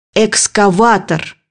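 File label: "excavator", Russian; bukvar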